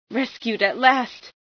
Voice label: at last, female